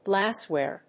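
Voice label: "glassware", English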